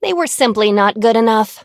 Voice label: victory en

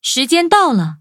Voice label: battle, zh-hant; Cookie Run

